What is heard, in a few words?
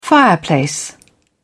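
"fireplace", British English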